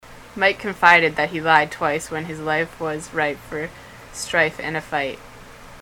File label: mike lied twice, ontario